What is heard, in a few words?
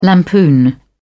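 "lampoon", British English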